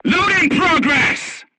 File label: voice message reloading, vietnamese